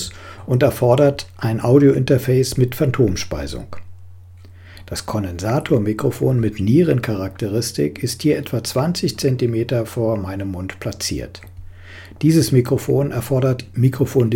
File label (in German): Mikrofontest Rode NT 5